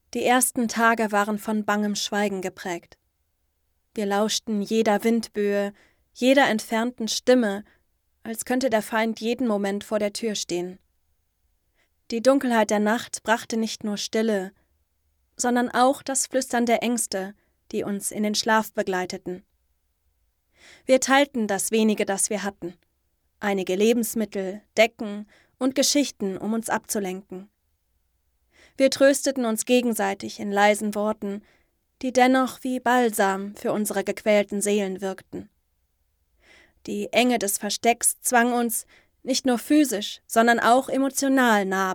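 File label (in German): Feature, Sprecherin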